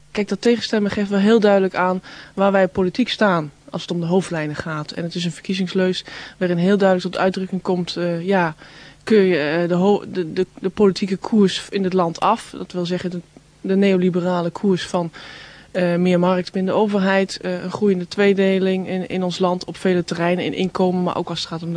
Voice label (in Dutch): Poldernederlands; geboren in 1967 te Hessisch-Oldendorf (t.o. van Osnabrück, Duitsland); studie Gezondheidswetenschappen Nijmegen (dr.); lid Tweede Kamer voor de SP; Agnes Kant